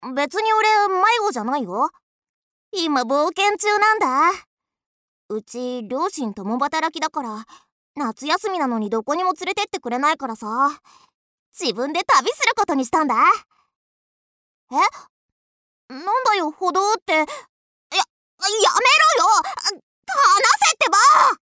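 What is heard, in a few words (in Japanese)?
女性 ロリ 元気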